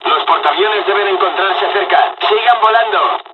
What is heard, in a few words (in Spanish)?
dialog, dialogs spanish; us midway; War Thunder